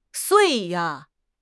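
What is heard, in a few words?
"sui4", Mandarin Chinese; HSK1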